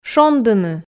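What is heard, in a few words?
"шондыны", Komi, female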